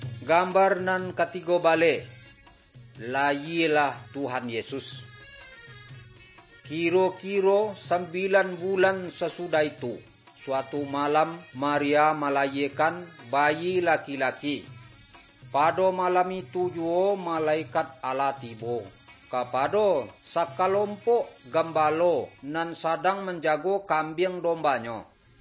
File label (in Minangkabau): good news minangkabau